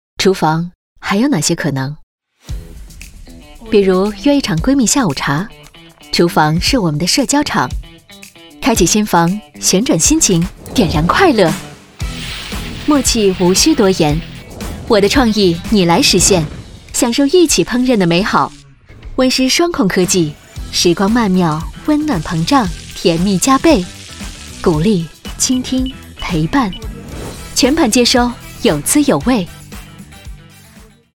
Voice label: 广告酷飒; 方太社交厨房

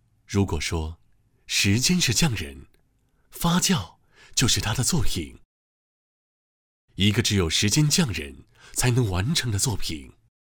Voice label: 配音韩妆; 韩妆苏秘

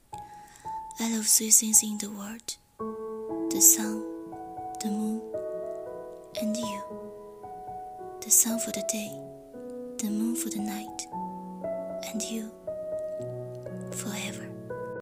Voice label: 英文配音

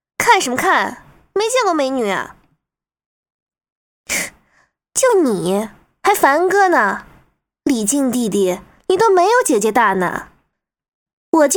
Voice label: 东北 女; 东北灵异记事; 女龙套

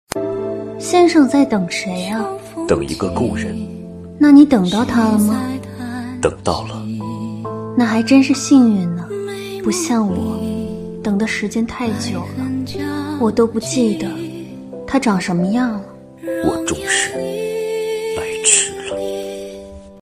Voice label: 动画女

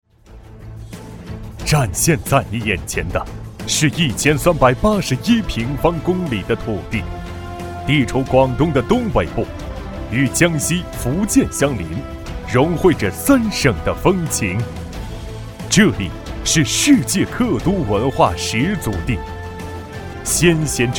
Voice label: 年轻激情

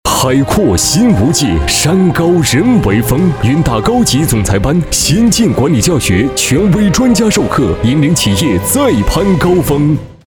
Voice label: 广告稳重

